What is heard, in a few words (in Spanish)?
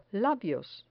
"labios", Spanish